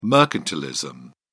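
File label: "mercantilism", British English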